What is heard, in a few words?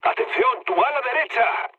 right wing, mood med, speech; War Thunder